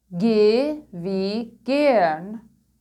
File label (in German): german alphabet